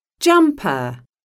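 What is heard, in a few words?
"JUMPER", English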